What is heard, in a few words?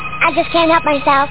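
"Can't help", speech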